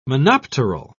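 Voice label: "monopteral", British English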